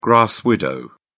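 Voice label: "grass-widow", British English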